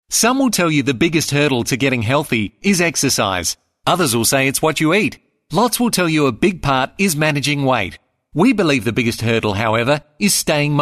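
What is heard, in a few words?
English Australian, male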